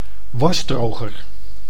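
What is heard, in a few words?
"wasdroger", Dutch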